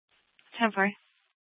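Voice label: Police Department Dispatch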